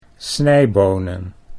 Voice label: "snijbonen", Dutch